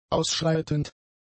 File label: "ausschreitend", German; adjectives